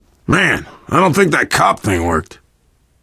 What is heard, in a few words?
world small town npc bellman, player survivor voice biker